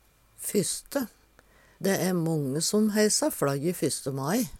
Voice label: fysste, Numedalsmål; Dæ æ månge som heisa fLajje fysste mai.; fyrste; Determinativ (mengdeord)

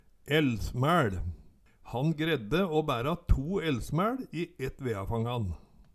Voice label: elsmæL, Numedalsmål; Den veden ein kan få plass til i ovnen på ein gong.

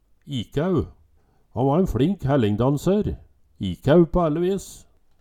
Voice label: "ikæu", Numedalsmål

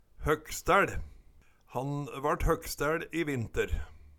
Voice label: hogstaeL, Numedalsmål

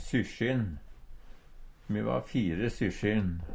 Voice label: syssin, Numedalsmål; Substantiv inkjekjønn

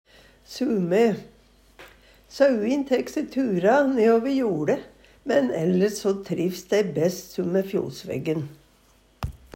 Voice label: su mæ, Numedalsmål

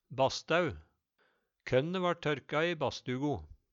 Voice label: "bastæu", Numedalsmål; Tørkehus for korn. Huset vart og bruka som badstove og bryggarhus.

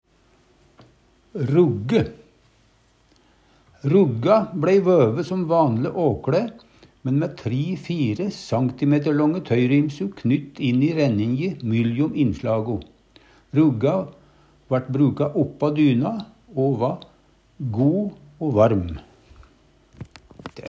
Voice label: "rugge", Numedalsmål

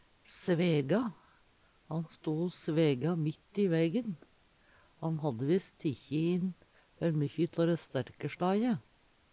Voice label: svega, Numedalsmål

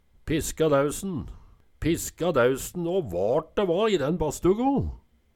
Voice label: "piska dausen", Numedalsmål

